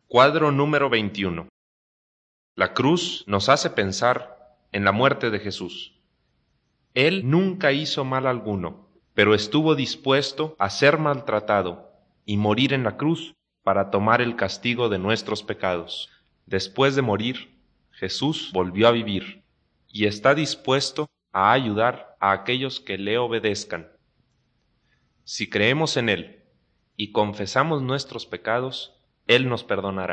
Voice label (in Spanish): good news spanish mexico